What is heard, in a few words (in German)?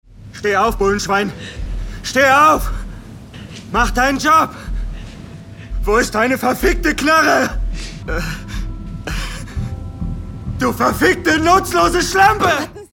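Wütende Verzweiflung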